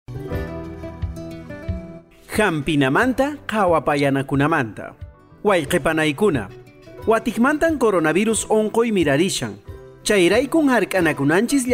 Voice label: quechua cusco collao, spot de radio; tomar medicamentos con precaucion; sospecha o confirmacion de contagio

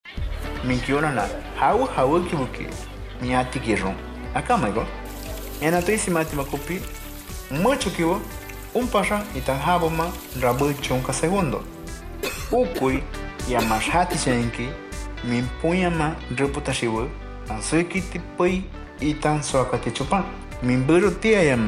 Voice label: shipibo konibo, spot de radio; recomendaciones generales; medidas de prevencion

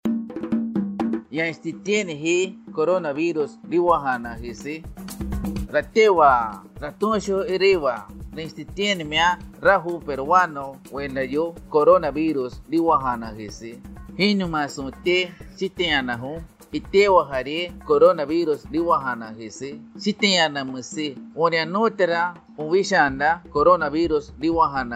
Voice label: yagua, spot de radio; vacunate para protegerte del coronavirus; vacuna contra el coronavirus

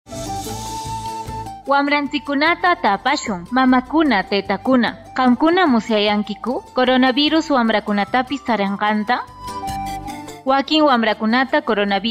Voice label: quechua ancash, spot de radio; cuidado de ninas y ninos; sospecha o confirmacion de contagio

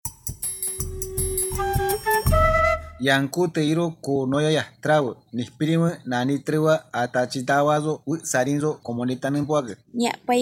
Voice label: shawi 2 voces, spot de radio; entrega de bienes y alimentos; medidas de prevencion

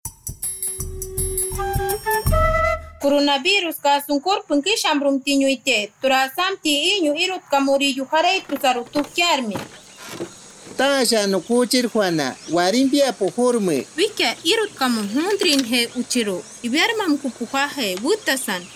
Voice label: achuar entrega de bienes y alimentos 2 voces, spot de radio; medidas de prevencion

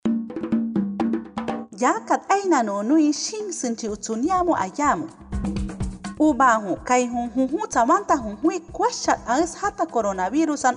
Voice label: awajun nuevas medidas, spot de radio; precauciones al salir de mi comunidad

